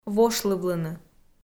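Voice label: "вошлывлыны", Komi, female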